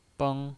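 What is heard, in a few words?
"beng", Mandarin Chinese, first tone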